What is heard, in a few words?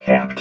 "capped", robot vox